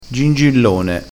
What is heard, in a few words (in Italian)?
"gingillone", dialettale crespinese